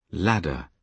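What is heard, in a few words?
"ladder", British English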